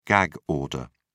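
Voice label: "gag order", British English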